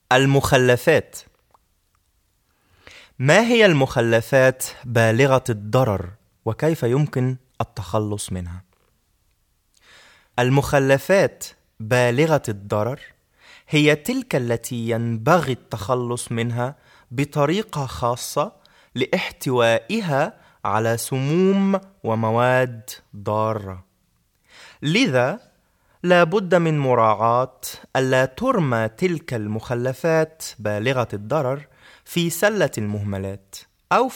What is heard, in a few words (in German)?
abfall arabisch